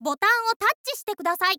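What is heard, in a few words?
"botton wo", Japanese, girl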